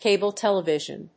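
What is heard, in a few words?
"cable television", English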